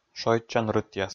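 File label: "шойччан рытъяс", Komi, male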